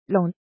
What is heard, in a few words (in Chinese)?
"long3", 汉字语音; chinese-voice